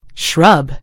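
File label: "shrub", American English; gcompris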